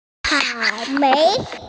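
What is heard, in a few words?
"cao3mei2", Mandarin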